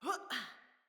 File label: Dismount Female, Battle Barks; Envoy